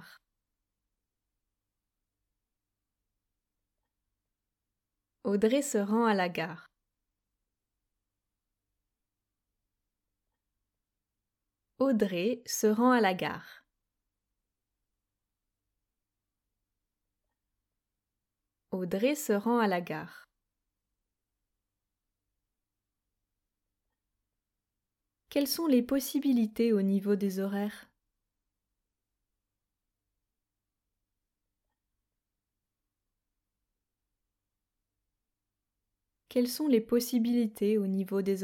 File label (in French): acheter un billet de train prononciation